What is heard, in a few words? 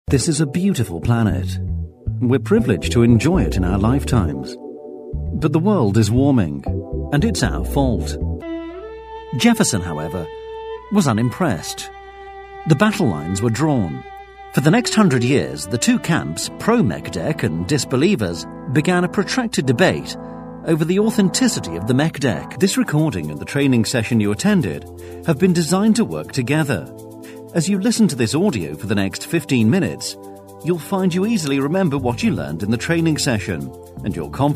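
English British, male, narration; voice demos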